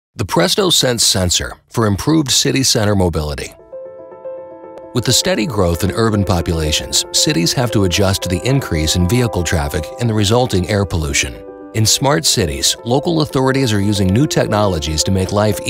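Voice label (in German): Produktvideos Jugendfrei, English, male; NATIVE PROFISPRECHER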